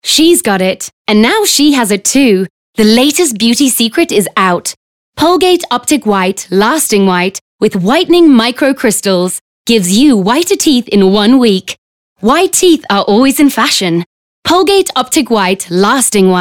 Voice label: English Bright